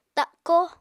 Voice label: "takó", Apatani

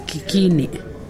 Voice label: "toe", Pingelapese

